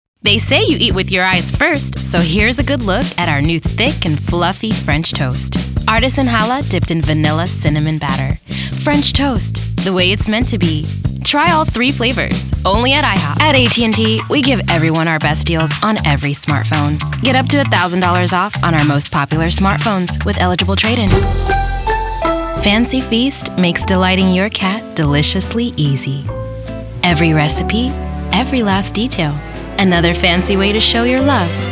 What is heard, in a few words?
commercial